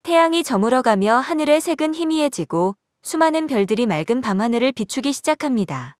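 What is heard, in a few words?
Korean, Korea; iOS 26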